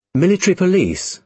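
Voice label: "military police", British English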